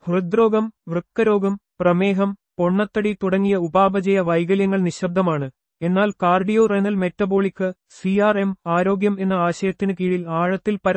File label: Male Malyalam